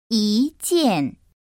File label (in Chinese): "yi jian", Chinese; 중국어 경성 연습